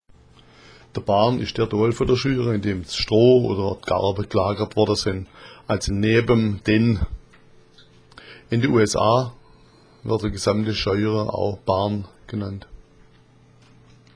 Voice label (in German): barn, schwaebisch